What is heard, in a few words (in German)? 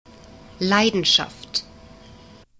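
"Leidenschaft", German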